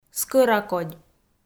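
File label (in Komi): "скӧракодь", Komi, female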